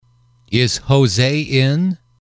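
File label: "is X in", English, male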